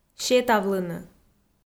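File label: "сетавлыны", Komi, female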